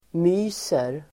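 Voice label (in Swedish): "myser", Swedish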